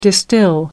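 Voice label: "distill", British English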